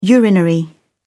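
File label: "urinary", British English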